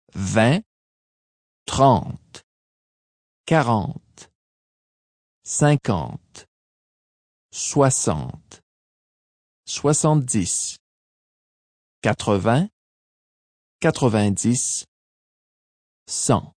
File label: Male voice, French, counting 20-100, speaking, (human voice)